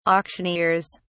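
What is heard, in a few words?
"auctioneers", British English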